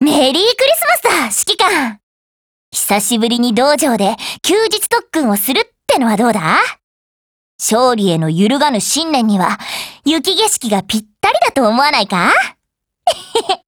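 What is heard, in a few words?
CHRISTMAS Japanese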